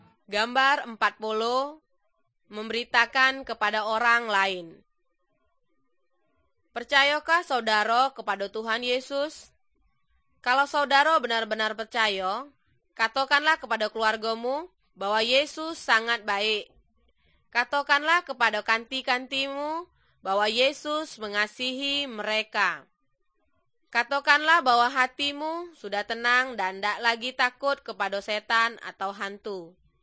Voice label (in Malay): good news malay jambi